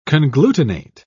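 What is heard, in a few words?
"conglutinate", British English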